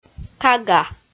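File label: "кага" Komi, female; kpv audio dict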